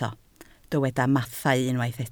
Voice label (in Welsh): "mathau", Welsh